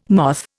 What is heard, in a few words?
"moth", Indian